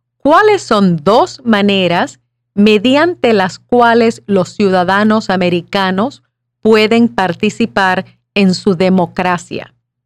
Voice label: Spanish